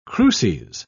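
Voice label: "cruces", British English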